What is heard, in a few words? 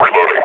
reload, combine grunt; vo; Half Life Model Pack